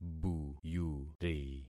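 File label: "buyuri", concatenated syllables; Affixing experiment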